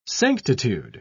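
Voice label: "sanctitude", British English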